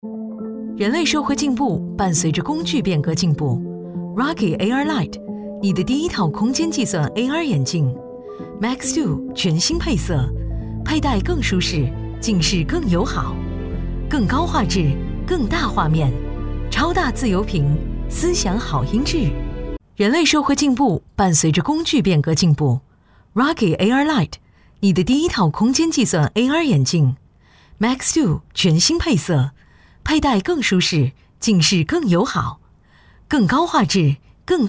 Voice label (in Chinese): 女 科技; Rokid-AR-Lite